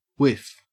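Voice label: "whiff" English, Australian; pronunciations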